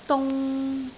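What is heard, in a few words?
"dung3", Cantonese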